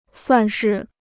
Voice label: "算是", Chinese